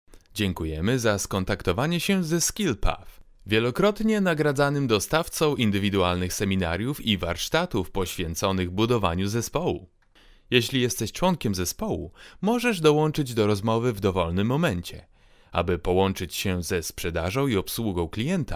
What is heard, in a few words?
locutor polaco polish voiceover; locutores polacos; Locutores de Polonia